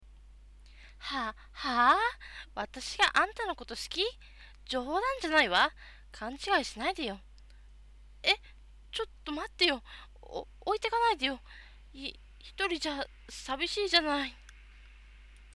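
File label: tsundere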